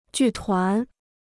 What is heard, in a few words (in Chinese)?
"剧团", Chinese; 剧团 (jù tuán); theatrical troupe